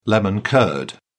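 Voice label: "lemon curd", British English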